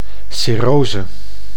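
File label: "cirrose", Dutch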